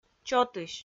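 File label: "чотысь", Komi, female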